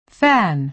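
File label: "fan", American English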